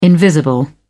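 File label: "invisible", British English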